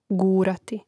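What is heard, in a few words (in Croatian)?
"gurati", hrvatski; mreznik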